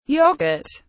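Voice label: "yoghurt", British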